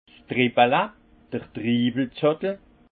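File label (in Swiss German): "treppele", alsace Haut-Rhin; vin; grappe de raisin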